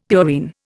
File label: "purine", Indian; Dictionary